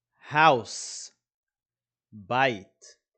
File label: "house" – "בית"